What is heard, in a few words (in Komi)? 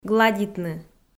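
"гладитны", Komi-Zyrian, female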